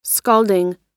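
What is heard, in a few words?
"scalding", American English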